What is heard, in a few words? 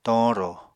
"toñrò", apatani